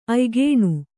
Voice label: "ಆಯ್ಕನೆ", Kannada

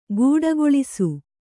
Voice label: "ಗೂಡಗೊಳಿಸು", kannada

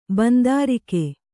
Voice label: "ಬಂದಾರಿಕೆ", Kannada

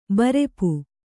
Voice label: "ಬೈರೂಪ", Kannada

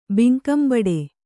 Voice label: "ಭಂಗಂಬಡು", kannada

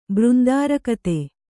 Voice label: "ಬೃಂದಾರಕತೆ", Kannada

